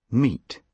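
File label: "meet", British English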